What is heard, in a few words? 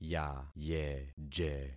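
"yayeje", concatenated syllables; Affixing experiment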